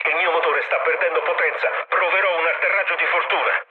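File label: Italian damaged engine mood low, speech; War Thunder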